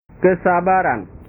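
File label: "kesabaran", Indonesian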